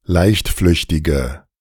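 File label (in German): "leichtflüchtige", German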